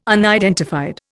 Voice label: "unidentified", Indian

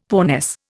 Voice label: "poorness", Indian; Dictionary

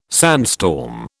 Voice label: "sandstorm", British

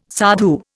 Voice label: "sadhu", Indian

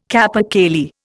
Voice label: "capercaillie", Indian

